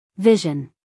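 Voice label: "vision", American English, female; everyone-can-use-english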